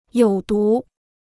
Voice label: "有毒", Chinese

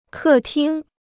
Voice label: "客厅", Chinese

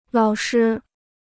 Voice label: "老师", Chinese

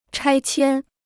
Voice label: "拆迁", Chinese

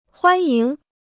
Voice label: "欢迎", Chinese

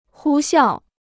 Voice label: "呼啸", Chinese

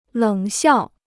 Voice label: "冷笑", Chinese

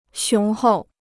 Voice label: "雄厚", Chinese

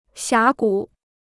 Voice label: "峡谷", Chinese

